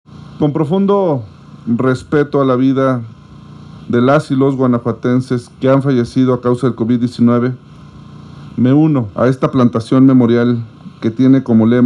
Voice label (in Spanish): Mensaje del Gobernador; Diego Sinhue; Gira Celaya Gto; victimas de covid 19; labor de policias de celaya